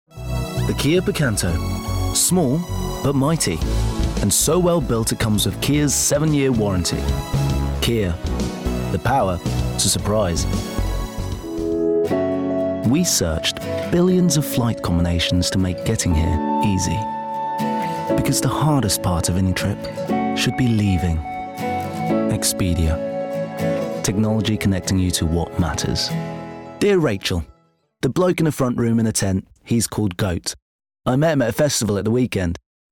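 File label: commercial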